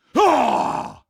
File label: pain, combine soldier; hl2